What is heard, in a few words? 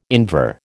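"Invar", American English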